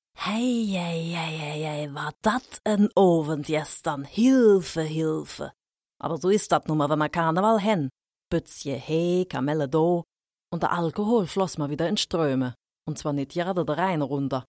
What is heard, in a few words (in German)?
Dialekt Koelsch